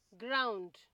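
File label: "ground", Nigerian Pidgin, female; pcm-ng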